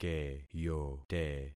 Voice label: "keyote", concat syllables; Affixing experiment